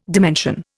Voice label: "dimension", Indian